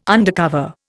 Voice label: "undercover", Indian

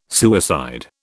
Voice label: "suicide", British

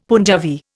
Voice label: "Punjabi", Indian; Dictionary

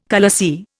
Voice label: "khalasi", Indian

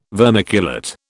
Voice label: "vermiculate", British

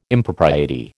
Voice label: "Impropriety", American

